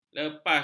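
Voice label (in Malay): "lepas", Malay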